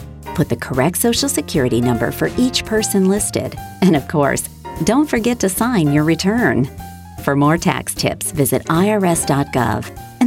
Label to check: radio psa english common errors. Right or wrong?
Right